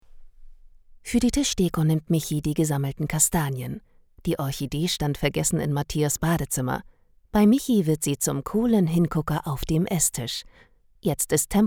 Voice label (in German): Doku; Deko Profi; LOFT VOICES